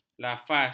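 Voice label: "lafaz", Malay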